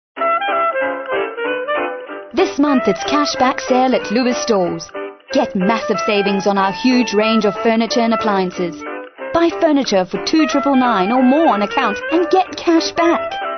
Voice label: Hard Sell